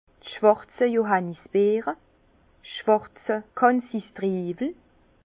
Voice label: "schwarze", Bas Rhin; vin; cassis

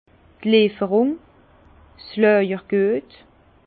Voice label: "lieferung", Bas Rhin; vin; service de livraison

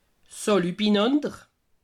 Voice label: "salu", alsace

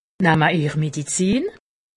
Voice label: "medizin", alsace; medecine

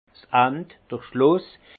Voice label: "end", alsace; vin; finale

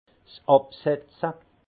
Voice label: "absetze", alsace; vin; sédimentation

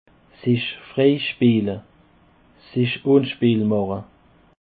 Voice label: "sich freispeele", Alsace

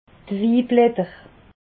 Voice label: "wiblatter", alsace

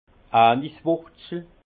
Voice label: "aniswurzel", Alsace; vin

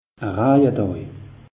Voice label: "rajedaj", alsace; meteo

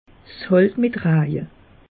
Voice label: "halt", alsace; meteo

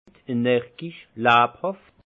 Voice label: "energisch", alsace; vin; vif

